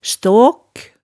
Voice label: "staak", hemsedalsmaalet, tale

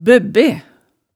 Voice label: "bøbbe", hemsedalsmaalet tale

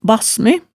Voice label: "bassme", hemsedals maalet tale

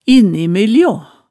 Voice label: "innimyljo", hemsedalsmaalet

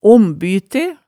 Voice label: "ombyte", Hemsedal

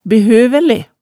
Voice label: "behøvæle", hemsedals maalet tale

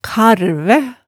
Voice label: "karvæ", hemsedalsmaalet tale

